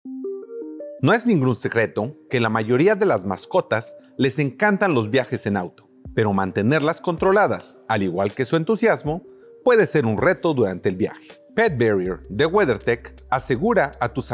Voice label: Spanish; Pet Barrier